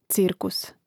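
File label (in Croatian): "cirkus", Hrvatski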